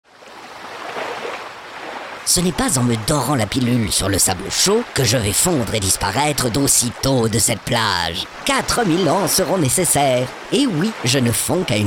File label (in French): spot radio recyclage verre